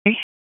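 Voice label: Sheriff's Office Dispatch; WSO DISP 1; wake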